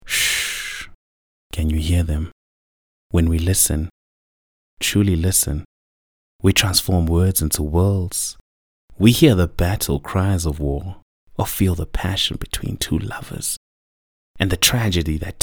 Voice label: English soft sell; OSM Talent

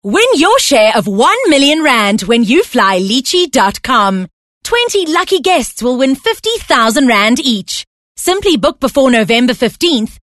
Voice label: English hard sell

